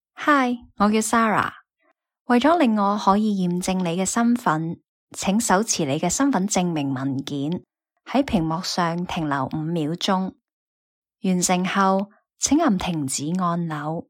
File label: certificate confirmation cantonese